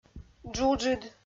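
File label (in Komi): "джуджыд", Komi, female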